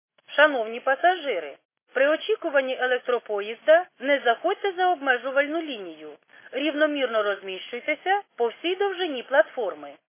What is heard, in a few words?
khs st female, subway station